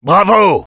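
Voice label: good job, French; Sniper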